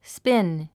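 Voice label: "spin", English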